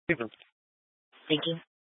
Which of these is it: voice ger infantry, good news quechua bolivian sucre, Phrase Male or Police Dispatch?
Police Dispatch